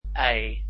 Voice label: "y", Dutch alphabet